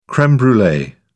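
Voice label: "creme brulee", British English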